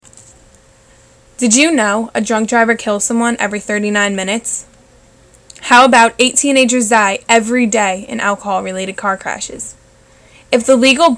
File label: PSA on Drinking & Driving